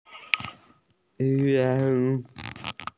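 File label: "sou1", Mandarin Chinese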